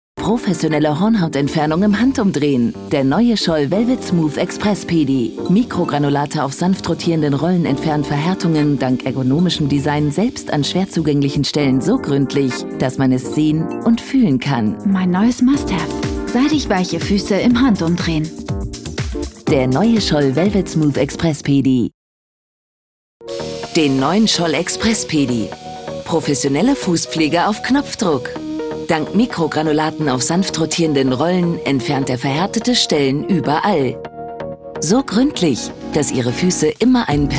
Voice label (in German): Werbung sachlich